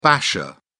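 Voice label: "basher", British English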